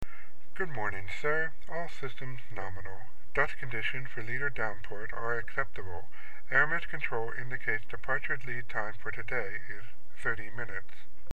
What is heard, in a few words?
computer voice